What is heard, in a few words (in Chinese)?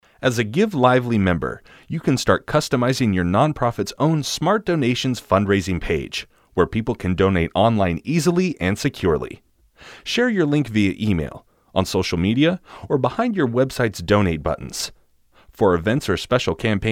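外籍男声 专题 美式英语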